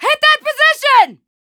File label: american Neutral; azurlane hoi4; azurlane sr reforged